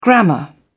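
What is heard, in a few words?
"grammar", English, British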